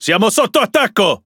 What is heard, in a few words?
Speech Pilots Fighter Under Attack, IT; World Of Warships